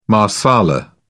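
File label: "marsala", British English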